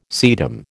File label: "sedum", American